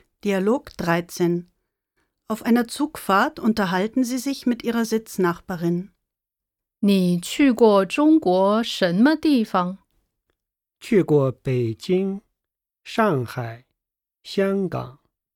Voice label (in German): Dialog